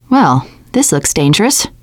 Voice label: take pipe bomb, teengirl, voice; player; survivor

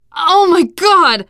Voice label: reaction disgusted, teengirl; player; survivor; voice

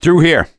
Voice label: misc directional, voice; gambler

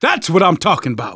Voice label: reaction positive, manager, survivor, voice; Left 4 Dead 2; left4dead2 dlc2

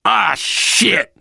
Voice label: reaction negative, voice, biker; Left 4 Dead 2; left4dead2 dlc3; survivor

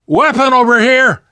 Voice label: spot weapons, survivor voice; namvet; Left 4 Dead 2; left4dead2 dlc2

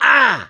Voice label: hurt minor, survivor voice mechanic

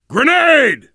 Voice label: grenade, survivor voice, biker; Left 4 Dead 2

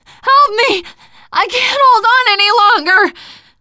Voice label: ledge hang end, teengirl

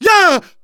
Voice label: fall short, survivor voice; manager; Left 4 Dead 2; left4dead2 dlc2

